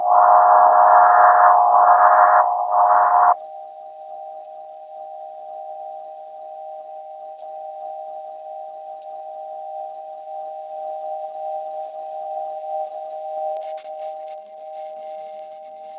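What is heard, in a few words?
pa glitch chopped japanese, bitcrush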